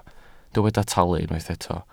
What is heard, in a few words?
"talu", Welsh